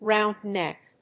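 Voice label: "round neck", English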